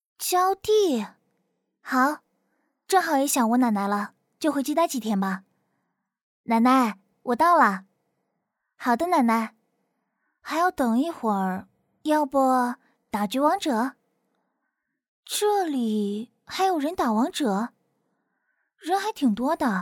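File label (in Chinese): 抖音剧情甜酷少女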